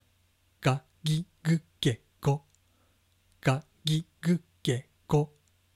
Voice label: ga gi gu ge go, pronunciation